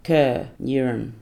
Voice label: "kir" urine